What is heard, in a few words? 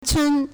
"cun1", Chinese